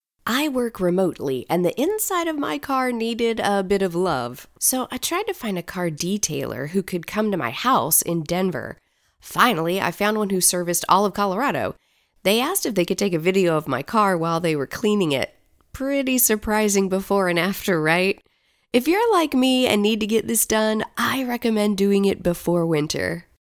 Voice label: TV Spot Busy Mom Relatable